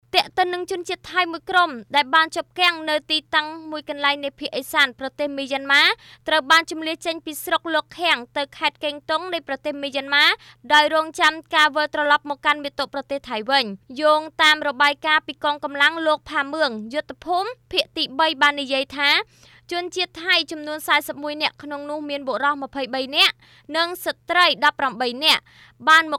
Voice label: News